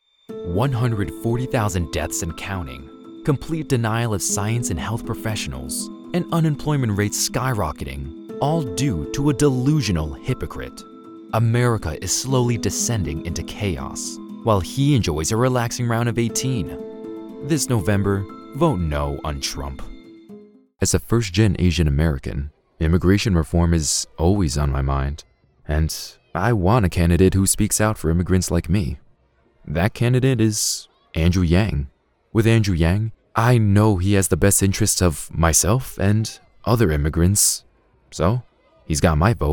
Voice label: Political, voiceover